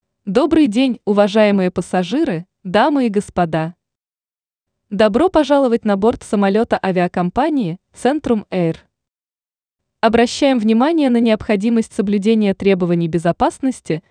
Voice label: ru